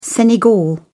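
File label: "senegal", English, British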